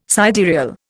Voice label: "sidereal", Indian

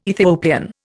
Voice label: "Ethiopian", Indian

